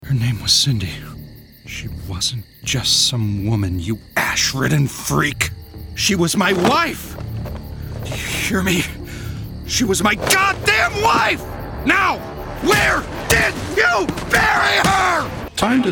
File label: interactive, voiceover